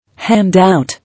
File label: "Handout", English